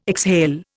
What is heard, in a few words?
"exhale", Indian